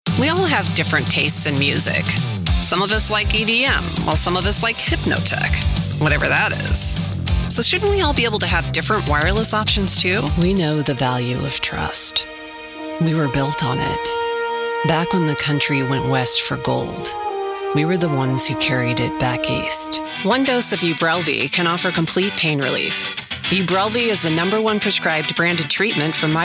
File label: Commercial